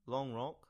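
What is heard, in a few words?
"Long Rock" English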